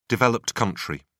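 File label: "developed country", British English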